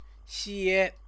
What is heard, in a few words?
"xie", Chinese; 些; some; measure word